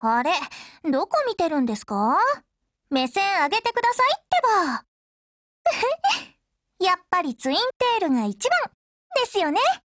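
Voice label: DIALOGUE, Japanese; HowaType89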